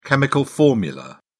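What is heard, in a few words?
"chemical formula", British English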